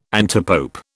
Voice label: "antipope", British

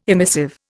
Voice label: "emissive", Indian

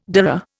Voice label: "dhurra", Indian

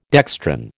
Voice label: "dextran", American

